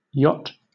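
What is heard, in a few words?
"yacht", British English; pronunciations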